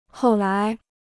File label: "后来", Chinese; 后来 (hòu lái)